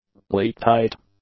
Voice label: "leak-tight", english; adjectives

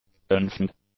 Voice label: "unfeigned", english; adjectives

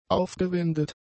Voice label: "aufgewendet", German; adjectives

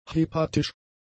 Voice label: "hepatisch", German; adjectives

